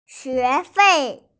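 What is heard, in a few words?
"xue2 fei4", Mandarin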